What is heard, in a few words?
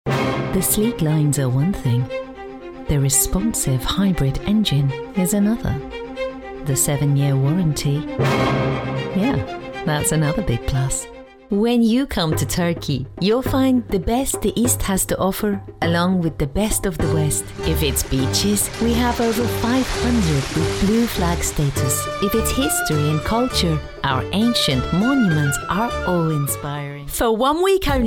Commercial Mixed Accent